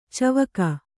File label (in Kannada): "ಚಿವಿಕೆ", Kannada